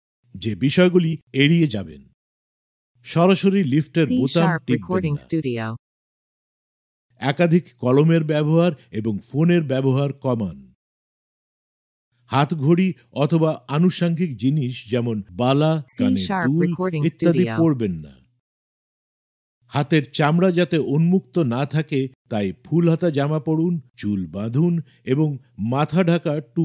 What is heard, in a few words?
Bengali Male